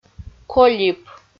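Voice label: "колип", Komi, female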